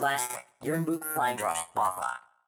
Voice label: Robot Gibberish; Chrome-Command